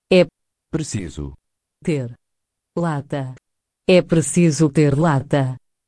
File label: ter lata portuguese saying; funny sayings